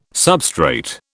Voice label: "substrate", British